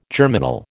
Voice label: "germinal", American

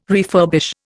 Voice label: "refurbish", Indian

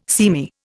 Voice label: "seamy", Indian

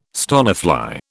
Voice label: "stonefly", British

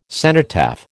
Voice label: "cenotaph", American

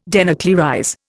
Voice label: "denuclearize", Indian

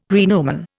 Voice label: "praenomen", Indian; Dictionary

